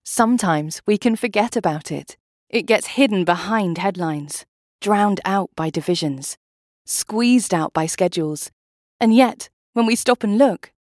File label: english british narration